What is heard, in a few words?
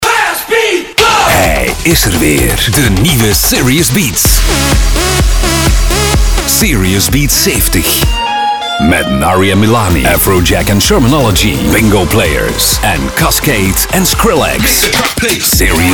commercial; serious beats 70